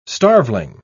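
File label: "starveling", British English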